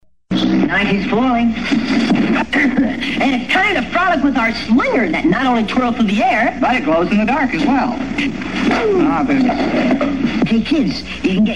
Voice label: Commercial; Kool-Aid; 1969